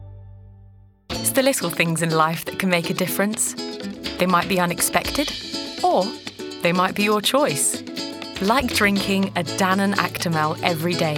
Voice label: female albanian and english commercial, english with eastern european accent; danone actimel